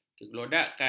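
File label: "kegelodakan", Malay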